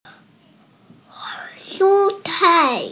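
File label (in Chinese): "shu1cai4", Mandarin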